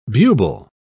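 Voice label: "bubal", English, British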